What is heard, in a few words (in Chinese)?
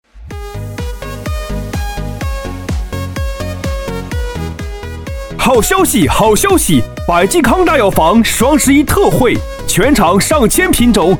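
促销大气激情大药房; 百济康大药房成品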